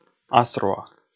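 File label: "асруа", Komi, male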